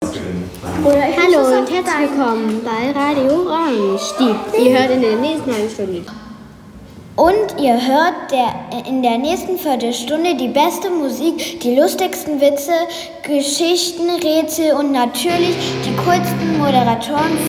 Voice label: jingle